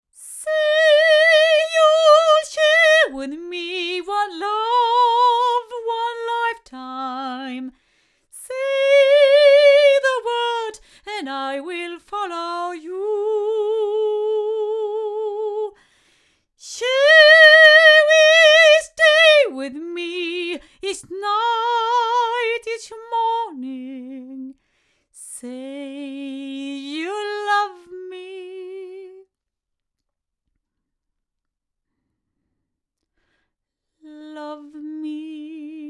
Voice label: ADK A51TL 8 female, microphones

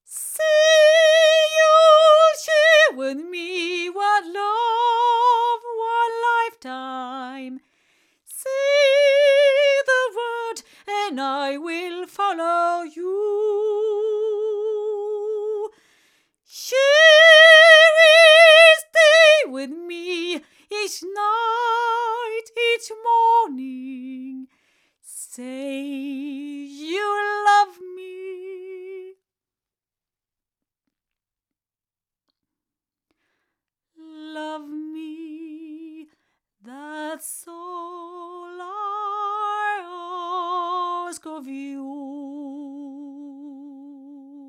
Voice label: Shure Beta58A female

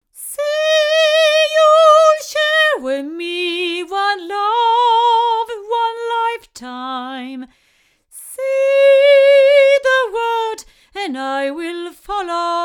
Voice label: MT97 8 female; TSM

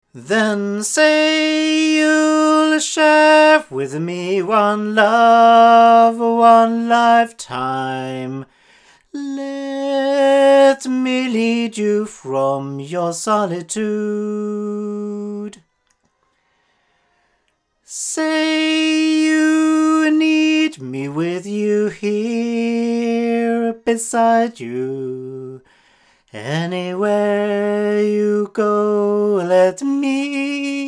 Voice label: omni male; Yeti Pro; BLUE

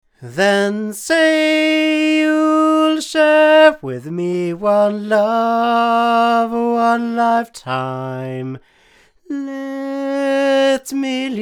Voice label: CB22 male, microphones recordings; Pearl

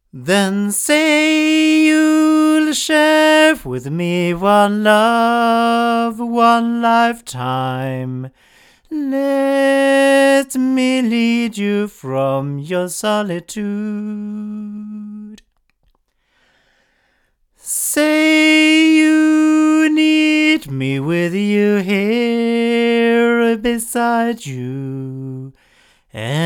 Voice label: MT97 cardioid male; TSM

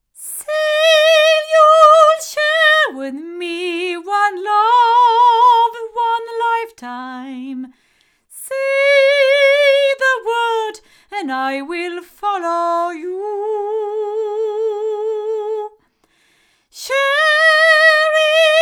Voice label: female, microphones recordings

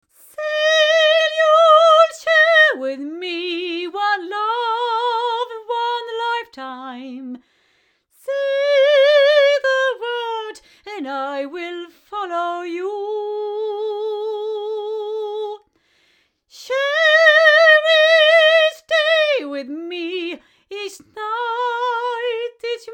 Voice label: sE R-1 female; se electronics

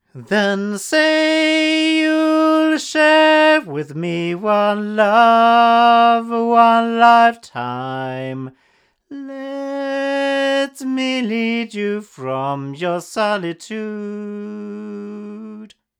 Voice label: front male; CT NakedEye; crowley tripp

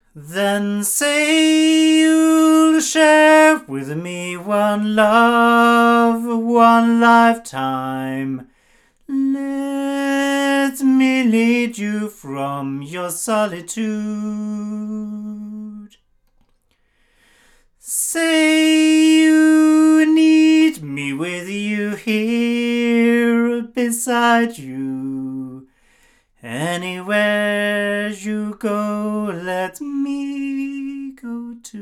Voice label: Sontronics Orpheus omni male

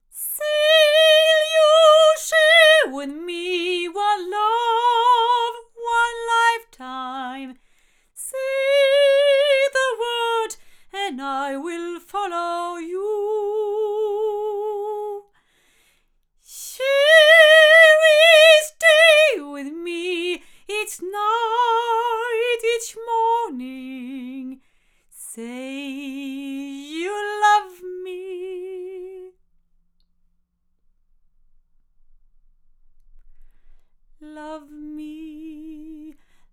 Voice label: omni female; studio projects

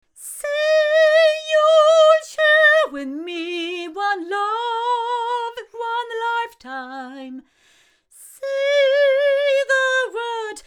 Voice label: CB22 female, microphones recordings; Pearl

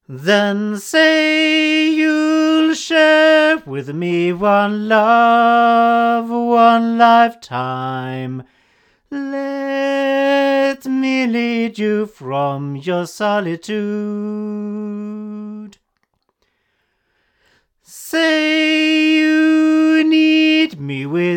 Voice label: sE R-1 male, microphones; se electronics

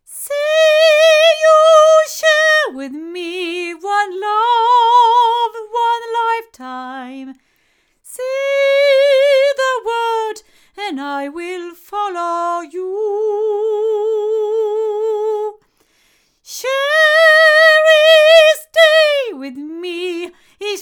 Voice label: female, microphones

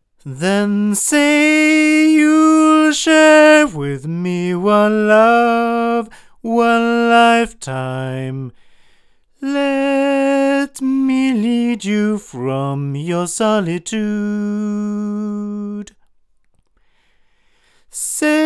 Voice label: A48 cardioid male; microphones; ADK

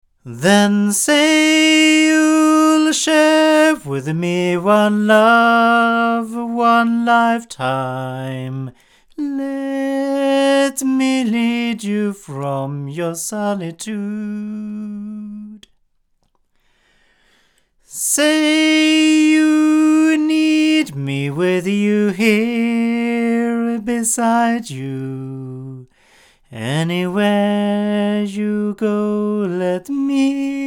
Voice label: male, microphones recordings

